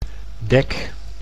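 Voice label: "dek", Dutch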